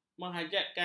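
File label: "menghajatkan", Malay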